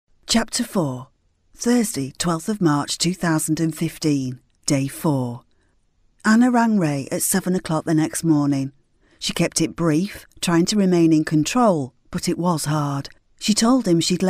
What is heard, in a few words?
audiobook